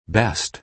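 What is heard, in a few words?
"best" American English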